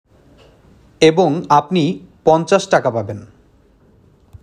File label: male